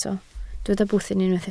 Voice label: "bwthyn", Welsh